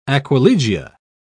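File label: "aquilegia", British English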